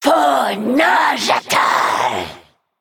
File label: Female Naga Hero Power